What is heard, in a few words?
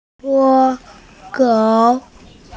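"suo3you3", Mandarin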